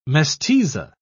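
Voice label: "mestiza", English, British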